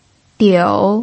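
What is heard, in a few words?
"diu", Mandarin Chinese, third tone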